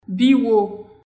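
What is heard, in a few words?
Haitian Creole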